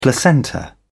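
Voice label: "placenta", British English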